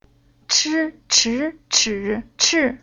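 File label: "Chi", Chinese syllable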